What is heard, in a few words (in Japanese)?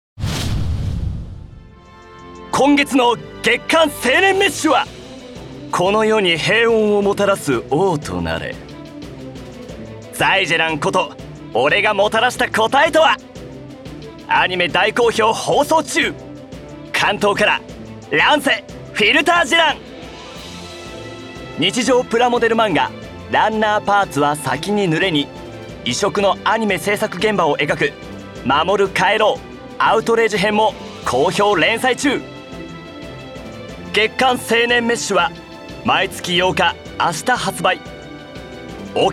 CM風ナレーション